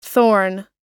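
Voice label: "thorn", English word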